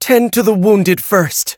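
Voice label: defeat, English; cookie run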